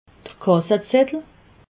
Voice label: "kassazettel", alsace

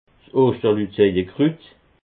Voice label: "oschterluzejekrut", alsace

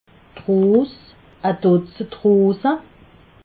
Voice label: "ros", alsace

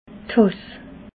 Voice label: "tass", alsace

